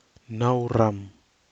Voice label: "naurám", Nafe (Kwamera)